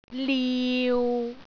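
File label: "liu6", Cantonese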